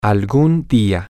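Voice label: Spanish, someday, male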